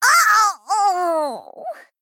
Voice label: Female Gnome Death